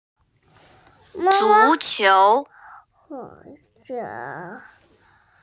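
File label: "zu2qiu2", Mandarin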